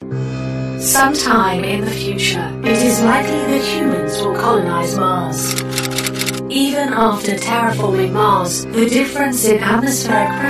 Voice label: Gaming Showreel